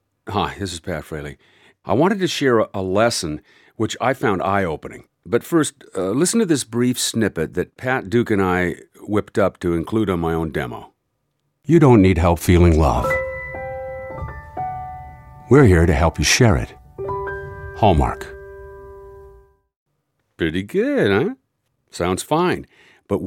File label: Voice Over What